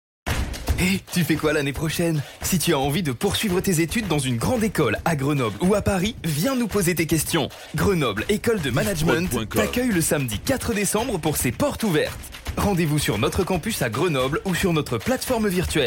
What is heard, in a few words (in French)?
Spot Voix, publicite; Ecole Management Grenoble